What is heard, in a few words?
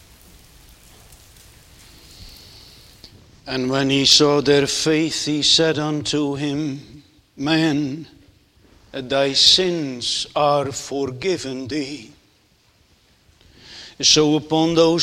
Sermon Continued; Jesus' Mercy to One Taken with Palsy (2); Netherlands Reformed Congregation, St. Catharines, ON